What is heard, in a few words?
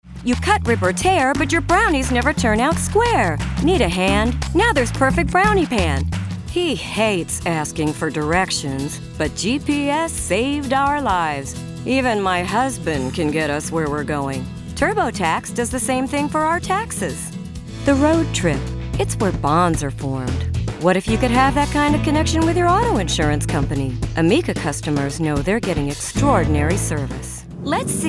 english us and canada female commercial